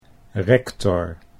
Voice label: "rector", Dutch

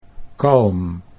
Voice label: "kalm", Dutch

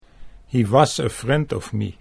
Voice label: he was friend me, Dutch

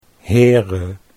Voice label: "Here", Dutch

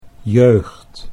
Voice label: "jeugd", Dutch

